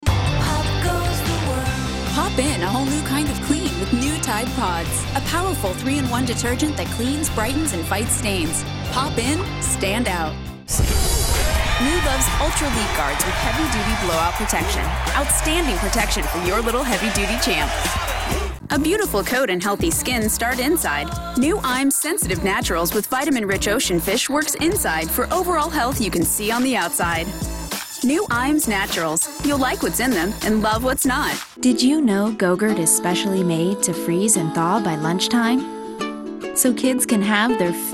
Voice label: commercial, commercial women

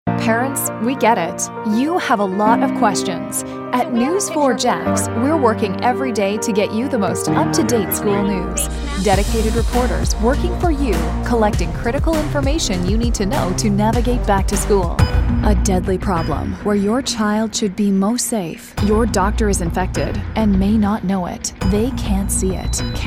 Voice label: tv affiliate women

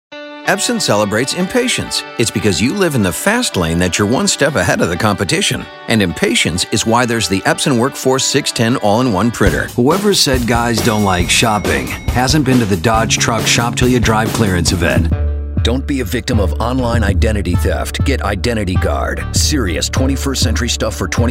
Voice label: commercial, men

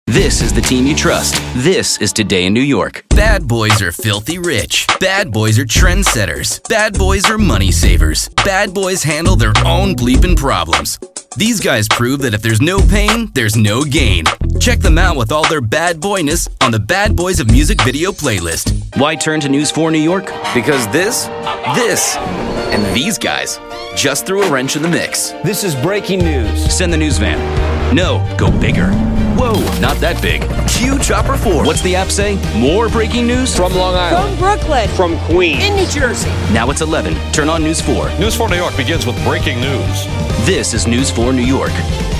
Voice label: promo male

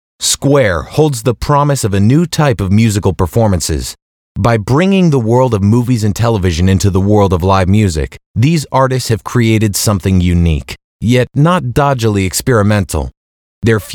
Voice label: narration

